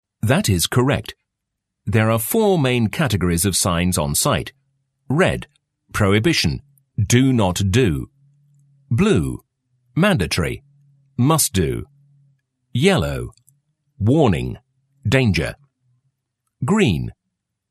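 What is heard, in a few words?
English British, e learning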